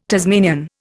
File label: "Tasmanian", Indian